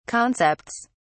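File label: "concepts", British English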